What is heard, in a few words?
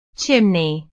"chimney", inglés